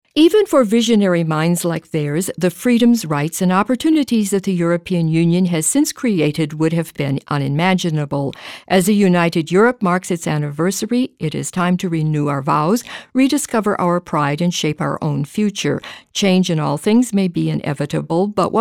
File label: English 1 Minute Trial Text